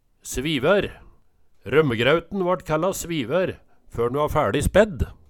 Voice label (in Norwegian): svivær, Numedalsmål